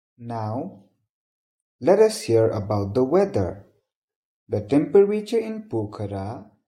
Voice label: radio newsreader; grade1; communication news